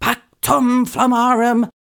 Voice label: pactum flammarum rushed, incantations older female fire spells; psfx